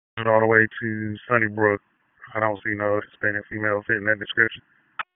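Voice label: Sheriff's Office Dispatch 1, p25; wake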